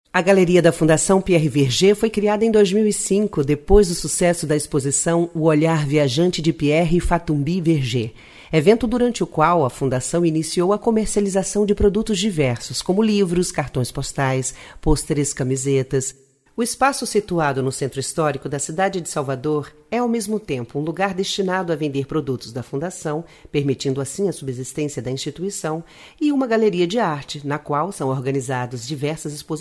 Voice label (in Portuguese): locucao